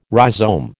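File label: "rhizome", American English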